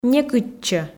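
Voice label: "некытчӧ", Komi, female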